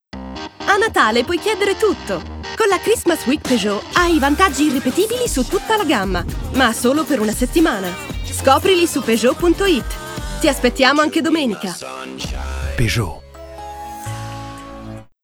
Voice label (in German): Werbung CH IT